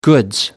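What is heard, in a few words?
"goods", British English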